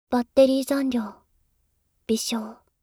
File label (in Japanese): バッテリー残量…微小, システムボイス; おまけ (Windows等向けシステムボイス)